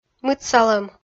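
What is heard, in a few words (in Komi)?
"мытсалӧм", Komi, female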